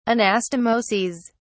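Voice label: "anastomoses", English, British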